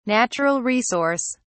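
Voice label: "natural resource", British English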